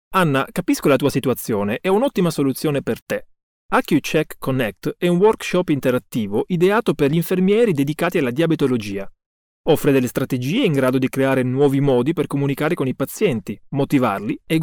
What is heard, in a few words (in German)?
Nachvertonung Film Sprecher Italienisch